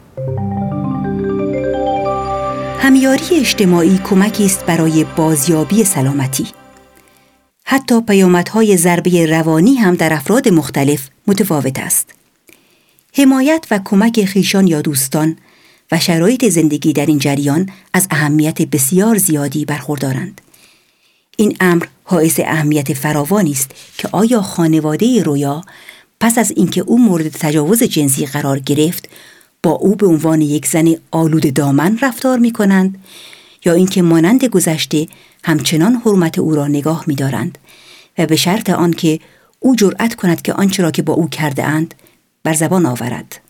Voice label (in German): Farsi; NAWA